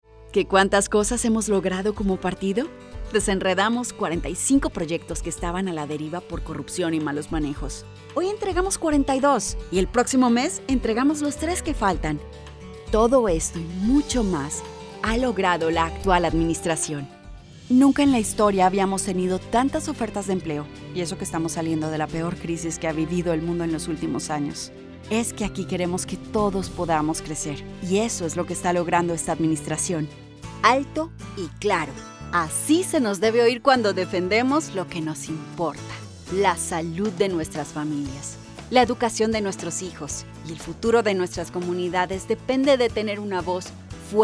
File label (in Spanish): Political Spanish